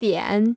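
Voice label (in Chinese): "点" Chinese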